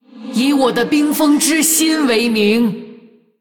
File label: Female Human WOW; JainaDeathknight; zh